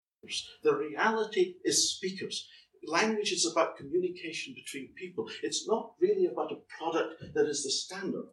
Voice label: Scottland Glasgow male professor 40s monologue

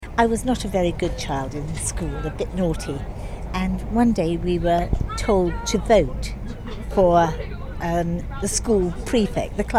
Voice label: RP Teacher England Woman

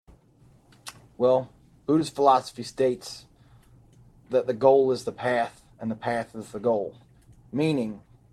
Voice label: USA South Carolina Coast Southern Male

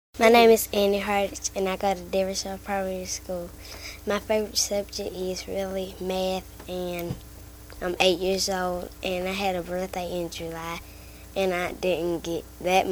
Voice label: Georgia Trailer Park Black Female Age 8

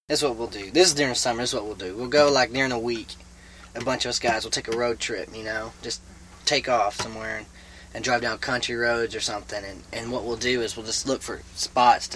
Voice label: Sweetwater Texas WM age 17; rhotic, or "r" pronouncing American Southern dialects

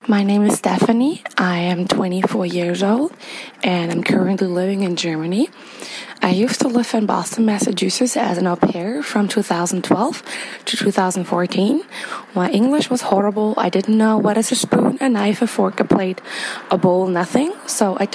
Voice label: German; Germany; Age 24

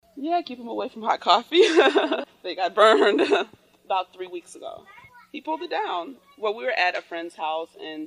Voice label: Wisconsin African American female 26; United States Midwest Dialects

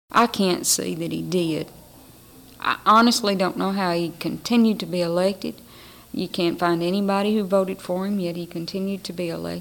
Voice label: Mountain WF age 35

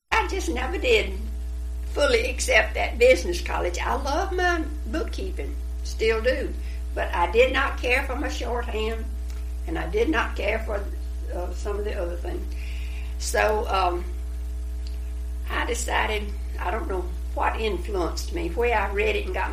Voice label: Alabama white female age 78

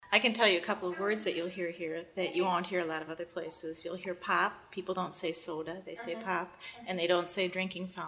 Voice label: Wisconsin woman 43

